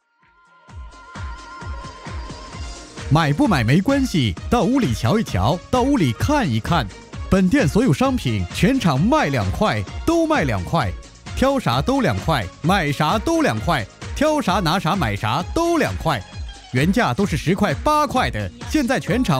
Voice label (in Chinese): 叫卖买啥都两块